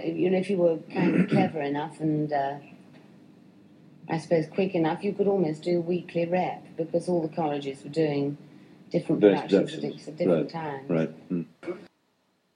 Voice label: A film star, conspicuous general british

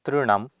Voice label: "trNam", Sanskrit

